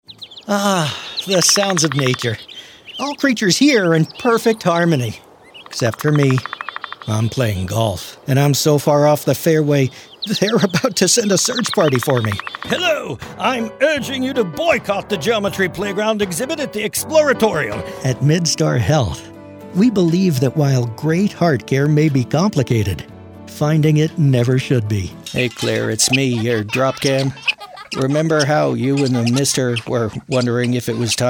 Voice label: Commercial